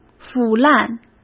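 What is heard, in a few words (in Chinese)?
"腐烂", Mandarin; chinese flashcards; hsk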